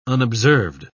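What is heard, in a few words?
"unobserved", British English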